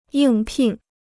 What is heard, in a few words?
"应聘", Chinese